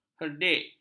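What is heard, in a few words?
"herdik", Malay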